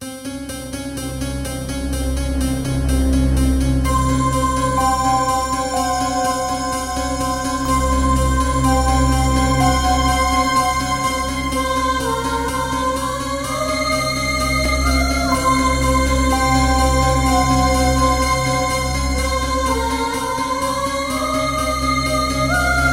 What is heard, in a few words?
horror intro; diablo2